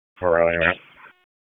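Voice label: Police Northeast District Disp, radio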